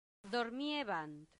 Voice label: "dormiebant", Latin; santillana